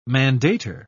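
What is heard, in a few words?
"mandator", British English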